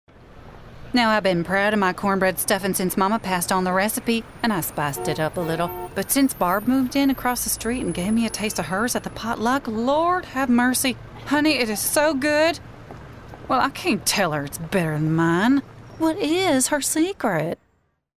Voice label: southern accent